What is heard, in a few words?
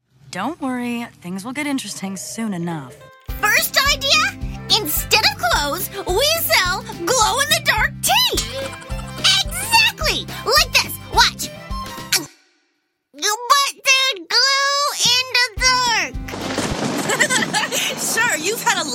animation